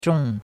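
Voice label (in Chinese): "zhong4" Chinese; Cours de Chinois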